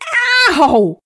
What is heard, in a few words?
Female Constable combat sound; SyrinScape; Missing Magistrate